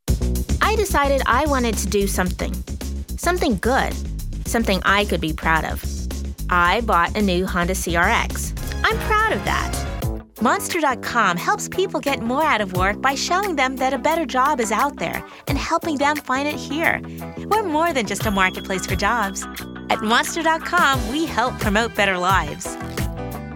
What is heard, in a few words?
Commercial